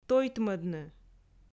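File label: "тойтмӧдны", Komi, female; audio dict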